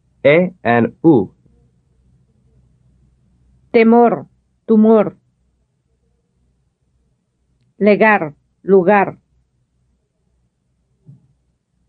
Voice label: Contrasting Spanish vowel sounds - e and u